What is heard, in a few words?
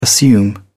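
"assume", British English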